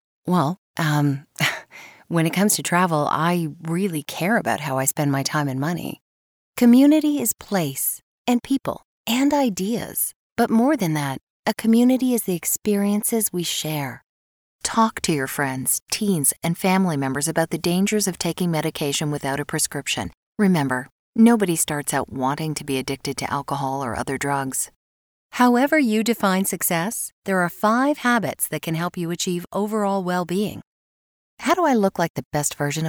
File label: conversational & narration